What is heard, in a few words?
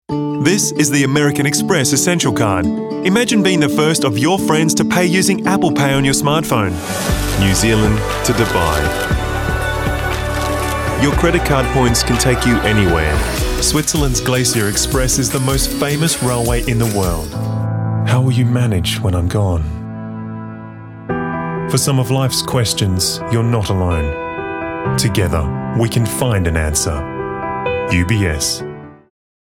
Commerical